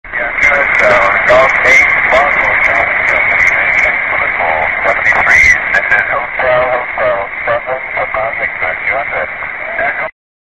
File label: qso